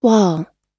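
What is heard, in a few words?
"wall", American English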